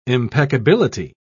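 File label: "impeccability", British English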